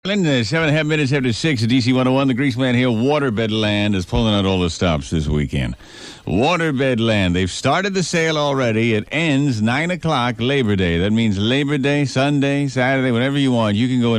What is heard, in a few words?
Ad